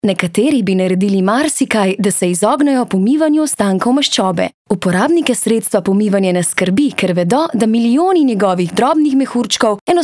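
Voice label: slovenian female commercial; voice demos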